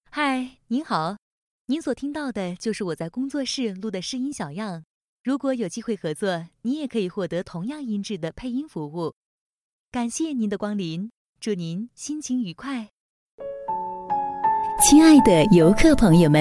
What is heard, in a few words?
Mandarin Female Voice